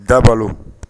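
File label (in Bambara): "dabalo", Bambara word; actions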